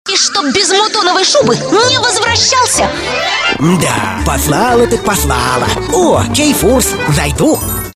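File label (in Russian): Muzhik Padal proshlogodnii sneg, man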